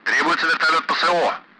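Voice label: Speech Sound Russian Common Allied Flight; Request CSAR; DCS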